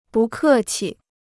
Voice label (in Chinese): "不客气", Chinese